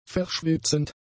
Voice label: "verschwitzend", German; adjectives